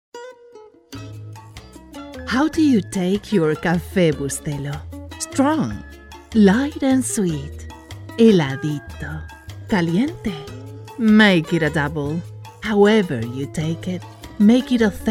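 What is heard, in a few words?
BILINGUAL English Spanish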